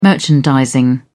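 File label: "merchandising", British English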